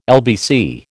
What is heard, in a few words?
"LBC", American